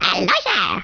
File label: "Hello", Danish